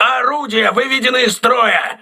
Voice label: voice message weapon destroyed, crew ships russian